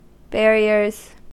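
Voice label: "barriers", US English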